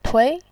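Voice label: "tui", Mandarin Chinese, second tone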